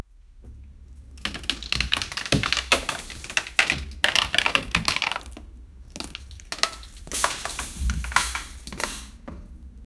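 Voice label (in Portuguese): homem das cavernas falando, speech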